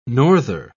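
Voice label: "norther", British English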